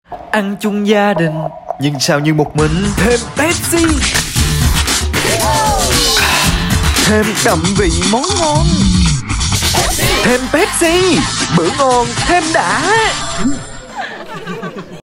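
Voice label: Vietnamese South Male; Pepsi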